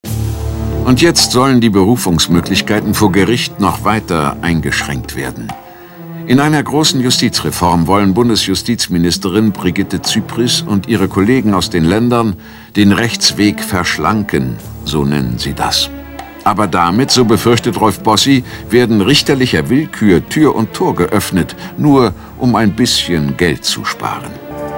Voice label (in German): Doku